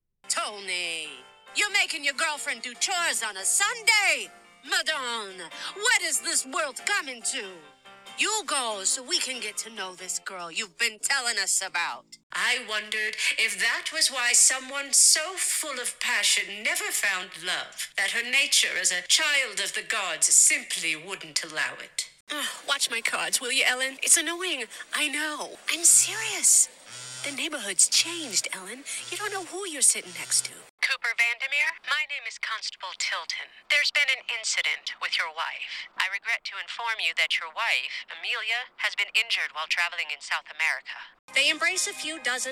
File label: Character